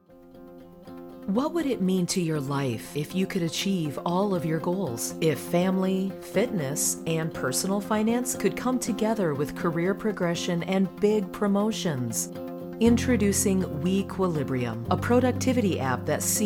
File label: Explainer Videos